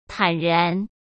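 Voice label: "坦然" Mandarin Chinese; hsk; chinese-flashcards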